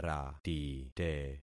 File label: "ratite", concat syllables; Affixing experiment